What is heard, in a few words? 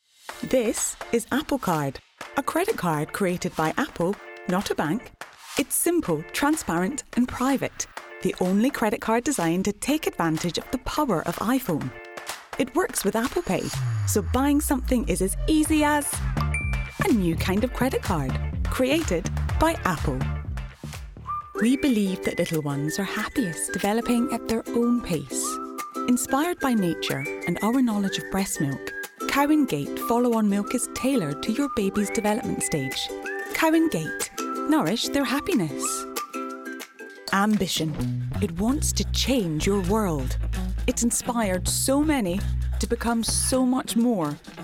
Commercial Reel